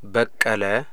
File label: it sprouted, amharic